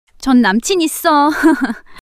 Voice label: fluster, monologue